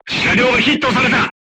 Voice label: voice message player tank hit, crew tanks japanese